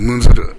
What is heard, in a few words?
"muzuru", minamiyamagata